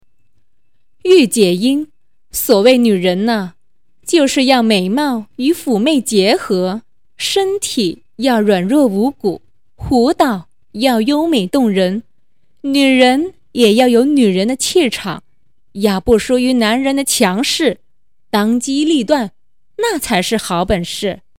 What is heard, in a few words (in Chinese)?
御姐音